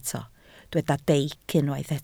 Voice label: "deic", Welsh